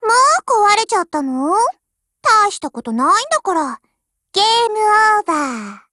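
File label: WIN, Japanese; GF Alarm; M4 SOPMOD II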